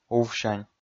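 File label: "увсянь", Komi, male; kpv audio dict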